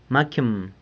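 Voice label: "macyëm", San Lucas Quiaviní Zapotec; machine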